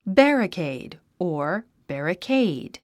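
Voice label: "barricade", English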